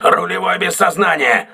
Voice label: voice message helmsman contused, crew ships russian